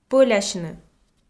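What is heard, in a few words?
"пӧлясьны", Komi, female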